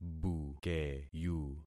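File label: "bukeyu", concatenated syllables; Affixing experiment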